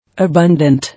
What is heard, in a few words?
"Abundant", English